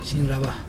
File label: "tree root", Chug